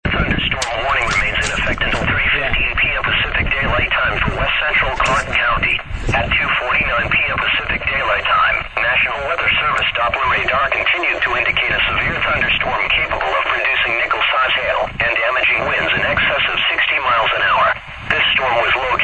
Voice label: weather radio